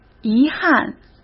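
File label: "遗憾", Mandarin Chinese; hsk; chinese flashcards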